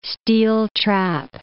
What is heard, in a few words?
"steel-trap", English